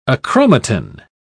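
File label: "achromatin", British English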